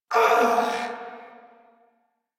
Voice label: Pain Corpus Venus Male; Warframe; Battle Barks

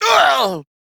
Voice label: Pain; Warframe; Battle; CorpusAristocratManager; Treasurer; en

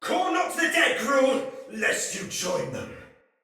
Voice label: Soldier Male; Battle; Sinecure; en

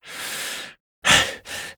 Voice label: Battle Adult; Warframe; Duviri Quest; Duviri Paradox Quest

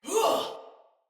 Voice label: Attack Sinecure Soldier Female

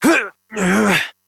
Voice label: Horse Mount Adult, Dialog; Duviri

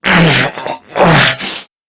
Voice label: Struggle Crewmen; Warframe; CorpusCrewmen; CrewmanA

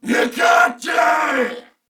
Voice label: Attack, Corpus Venus Male

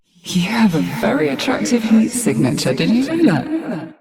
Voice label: Ambient Barks, en; Warframe; Mall Romance; Eleanor

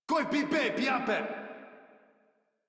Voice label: Player Spotted Modular Corpus Male; Dialog; Barks; Battle; Warframe

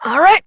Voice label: Horse Start Adult; Duviri

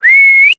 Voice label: Horse Sprint Adult; Duviri

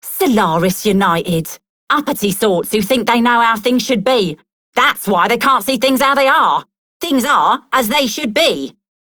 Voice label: Female Rebel, en; Dialog; Warframe; Solaris Venus

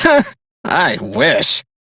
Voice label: Reject, Male; Dialog; Warframe; Railjack Crew; Perrin Sequence

